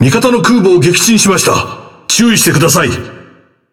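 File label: Speech Destroy Ally Aircarrier, Japanese; World Of Warships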